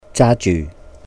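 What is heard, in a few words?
"jà jyuh", Cantonese; holding; 揸住; holidays and festivals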